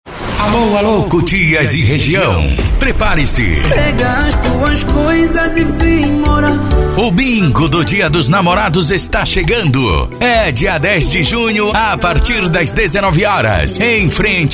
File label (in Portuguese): LOCUTOR